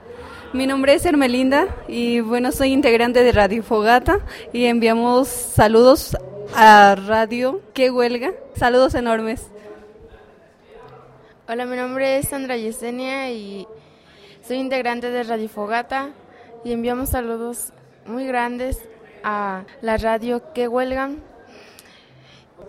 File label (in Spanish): radio Saludos; quinto aniversario cheran; Fogata